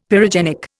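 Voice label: "pyrogenic", Indian English; Dictionary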